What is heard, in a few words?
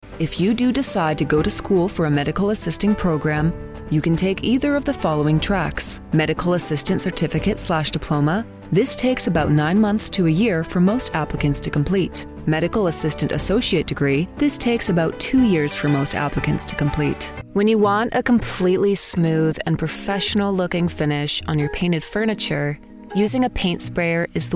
english us and canada female